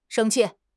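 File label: "sheng1 qi4", Mandarin Chinese; HSK3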